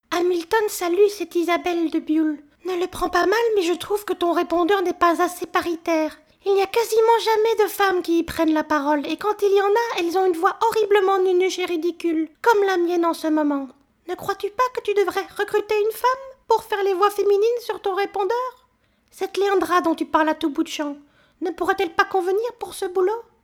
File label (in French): Repondeur